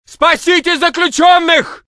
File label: Russian; mohaa; dialogue